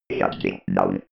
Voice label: robot voice shutting down